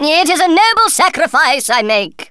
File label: kamikaze, Speech Thespian; Worms Armageddon